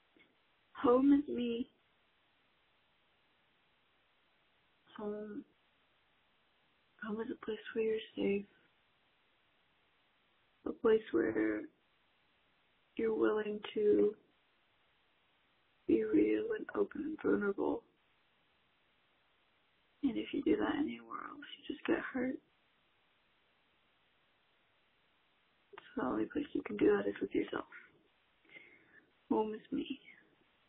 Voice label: Voicemail